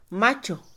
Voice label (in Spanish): caballo semental, Mixe, Mujer; Animales; INALI